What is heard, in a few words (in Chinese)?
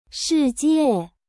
"世界", tone sandhi